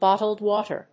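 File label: "Bottled Water", English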